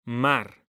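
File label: "mar", Spanish, male; mekanta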